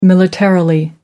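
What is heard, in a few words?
"militarily", British English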